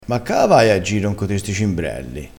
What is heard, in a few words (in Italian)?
"cimbrelli", crespinese